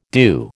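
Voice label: "due", American English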